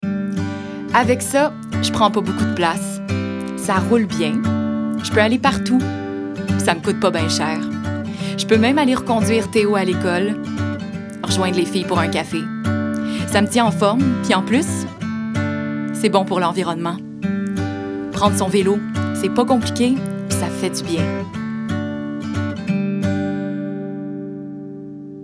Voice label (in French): voix femme 30 40 ans